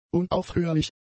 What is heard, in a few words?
"unaufhörlich", German; adjectives